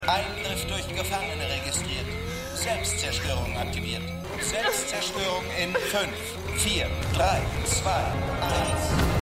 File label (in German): Computerstimme; Supergirl